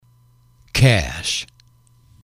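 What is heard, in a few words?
"cash", English, male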